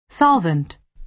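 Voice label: "solvent", American English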